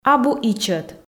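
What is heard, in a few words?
"абу ичӧт", Komi, female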